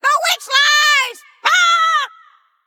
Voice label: Female Beast Play